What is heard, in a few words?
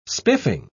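"spiffing", British English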